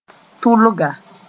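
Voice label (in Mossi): "tʋlga", Mooré; lust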